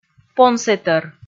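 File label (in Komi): "понсэтӧр", Komi, female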